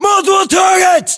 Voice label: threat infantry multiple, battlechatter, English, US